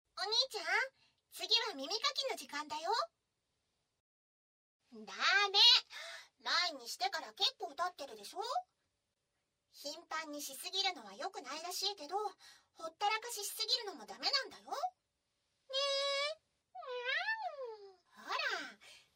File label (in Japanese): 耳かきしてあげる！, ASMR